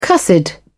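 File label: "cussed", British English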